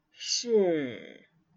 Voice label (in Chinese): 是 小聲